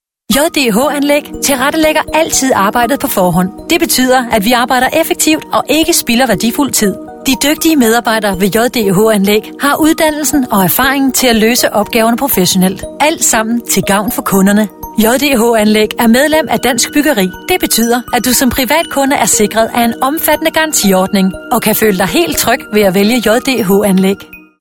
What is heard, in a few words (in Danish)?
Virksomhedsfilm